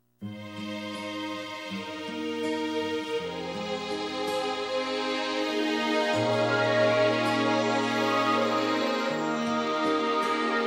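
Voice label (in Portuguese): bom dia feminina romântica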